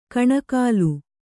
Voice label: "ಕಣೆಕಾಲ್", Kannada